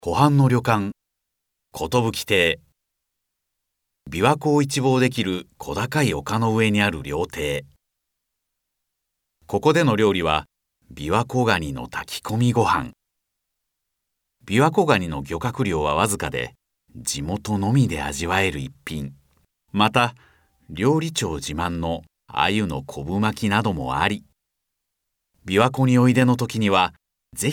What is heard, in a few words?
narration